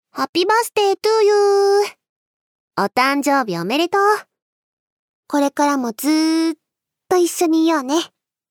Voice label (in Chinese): Happy Birthday to you♪生日快乐。今后我们也要一直在一起哦♪, ASMR